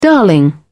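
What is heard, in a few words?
"darling", British English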